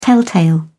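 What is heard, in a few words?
"telltale", British English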